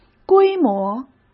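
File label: "规模", Mandarin Chinese; hsk; chinese-flashcards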